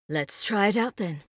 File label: Female; Skyrim Special Edition; Dawnguard Beleval